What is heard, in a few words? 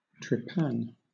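"trepan", British English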